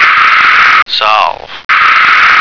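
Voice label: "solve", American English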